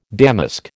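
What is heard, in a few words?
"damask", American English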